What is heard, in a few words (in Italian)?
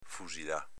"fusilà", dialetto veneto